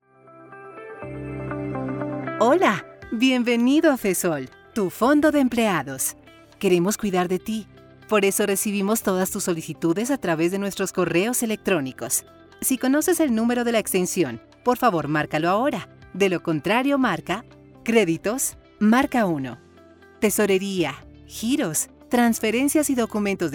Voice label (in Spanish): IVR; Fesol